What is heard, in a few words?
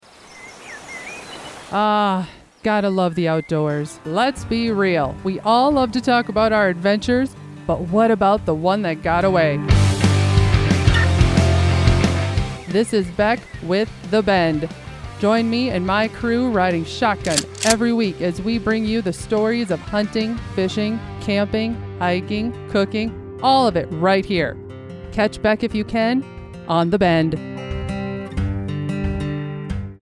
Promo; The Bend; Fall